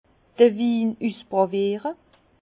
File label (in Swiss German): "win ussprawiere", Bas Rhin; vin; choix des vins